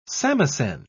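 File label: "samisen", British English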